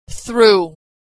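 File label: "through", American English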